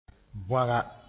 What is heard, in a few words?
"bõaga", moore